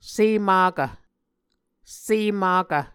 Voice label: "semakv", Muscogee

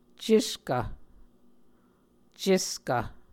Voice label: "ceskv", muscogee

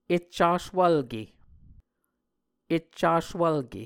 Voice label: "eccaswvlke", Muscogee; Mvskoke Words with Repeated Consonants; Martin, Jack B., and Margaret McKane Mauldin. 2023. A Dictionary of Creek/Muskogee

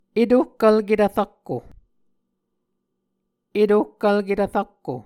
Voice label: "etohkvlketv-rakko", muscogee

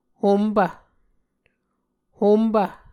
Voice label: "hompv", Muscogee

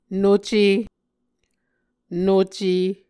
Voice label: "noce", Muscogee

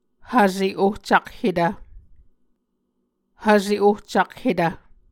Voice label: "hvse ohcakhetv", Muscogee

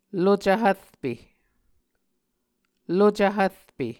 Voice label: "lucv hvrpe", Muscogee

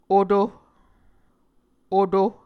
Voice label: "oto", Muscogee

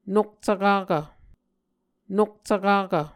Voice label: "nokcvkakv", Muscogee

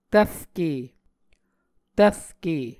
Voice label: "tvrke", Muscogee

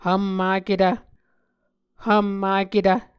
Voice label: "hvmmaketv", Muscogee

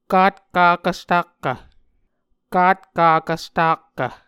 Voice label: "katkakv stakkv", Muscogee; Mvskoke Words with Voicing Consonants; Onvkv Ohhvyayices; A Dictionary of Creek/Muskogee; SIL International

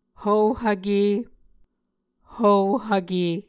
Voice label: "hvohvke", Muscogee

